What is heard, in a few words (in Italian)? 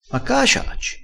"ciaccia", crespinese